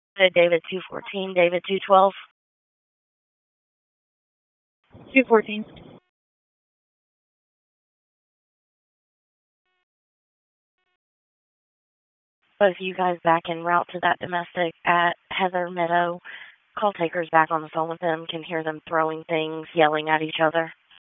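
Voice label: Police District Dispatch, p25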